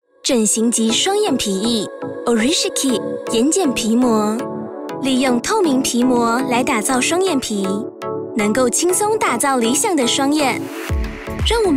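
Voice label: bright narration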